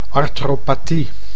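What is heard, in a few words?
"artropathie", Dutch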